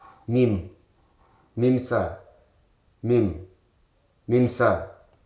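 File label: "lady", English, Hruso dictionary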